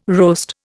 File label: "roast", Indian